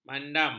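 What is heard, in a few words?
"mandam", Malay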